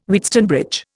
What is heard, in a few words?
"Wheatstone bridge", Indian